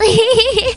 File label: cheer